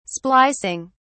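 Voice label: "splicing", British English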